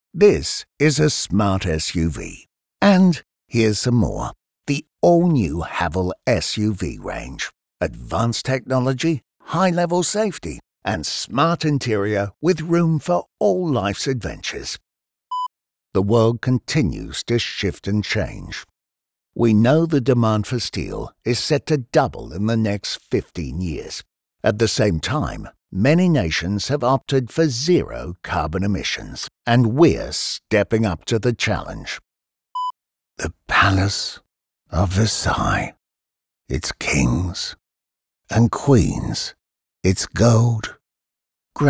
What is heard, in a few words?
SMOOTH CLASSY